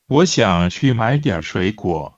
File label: Mandarin Conversation